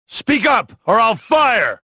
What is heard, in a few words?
suspicious; XBOX; rebel